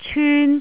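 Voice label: "cyun1", Cantonese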